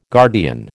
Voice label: "guardian", American